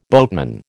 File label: "boatman", American